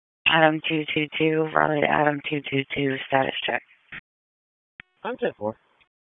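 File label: Police Northeast District Dispatch, p25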